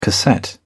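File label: "cassette", British English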